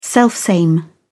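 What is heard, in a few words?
"selfsame", British English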